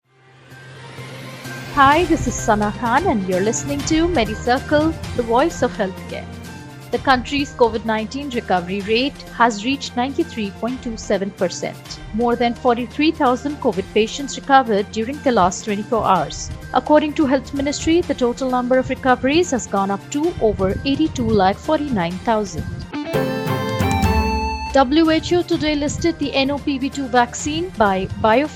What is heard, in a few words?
english news